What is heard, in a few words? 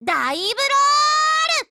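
skill, Japanese; MPK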